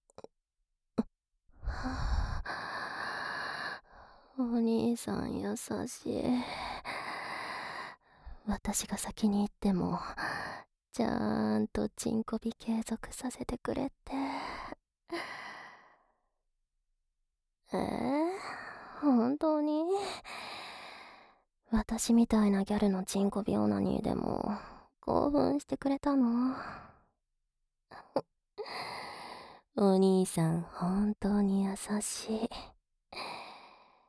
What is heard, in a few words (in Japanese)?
耳舐めしながら淫語囁きでチン媚び, ASMR; SEなし